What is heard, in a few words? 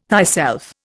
"thyself", Indian